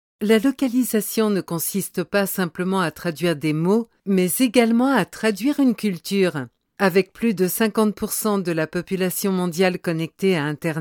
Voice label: french france female